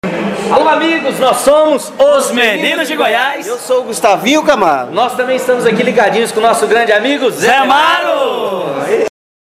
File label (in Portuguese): vinheta falada; programa zmaro